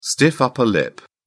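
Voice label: "stiff upper lip", British English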